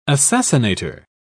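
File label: "assassinator", British English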